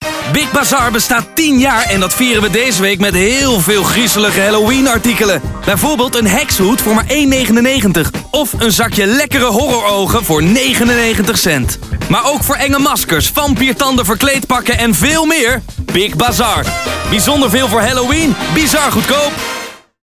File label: Radio Halloween